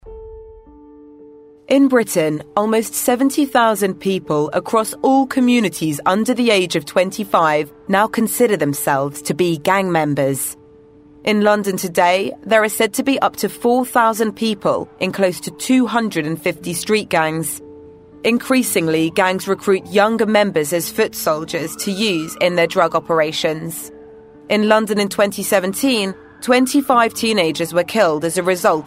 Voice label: Narration; Gang Culture